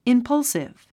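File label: "impulsive", English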